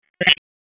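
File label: Raleigh Police North District Tactical; Wake; p25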